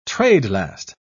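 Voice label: "trade-last", British English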